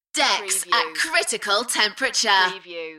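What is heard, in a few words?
UK female, Enthusiastic, voice drop; Decks at critical temperature; beanstalk audio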